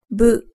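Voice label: "bu", Japanese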